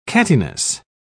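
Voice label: "cattiness", British English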